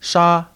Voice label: "ʂā", Chinese; Standard Chinese

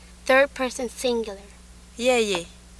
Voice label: Swahili j

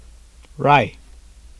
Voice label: Turkish ɹ

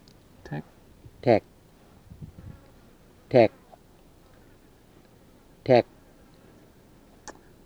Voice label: "tek", Murrinh-Patha; Minimal Pairs; Plosives; cek

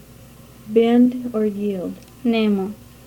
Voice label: Swahili

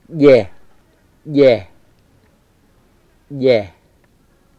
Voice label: "dhe", Murrinh-Patha; ɟe

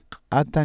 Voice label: qata ejective, Cusco Quechua; qʼ/ q’ata

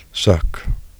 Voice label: "sökk", Icelandic

